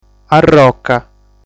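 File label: "Arroca", Sardinian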